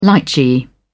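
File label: "litchi", British English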